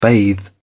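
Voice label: "bathe", British English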